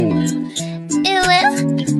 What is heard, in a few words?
uwu anime